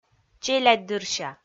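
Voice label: "челядьдырся", Komi, female; kpv audio dict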